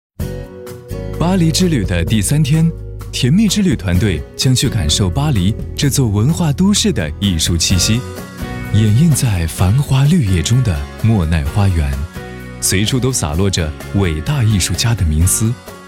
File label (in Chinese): 男国 宣传片 旅游 时尚; 巴黎